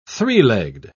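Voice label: "three-legged", British English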